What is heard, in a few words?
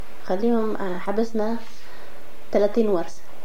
quarrel, Domari